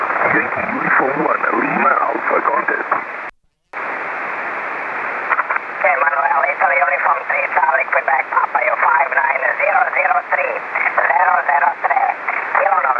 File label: 432 SSB